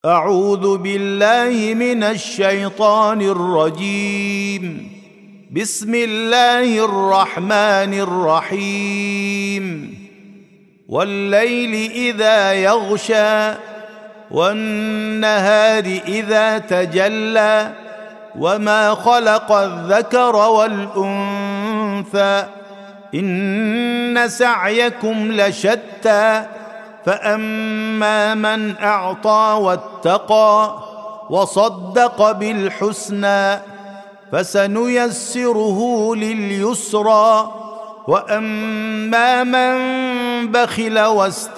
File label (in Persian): ترتیل سوره مبارکه لیل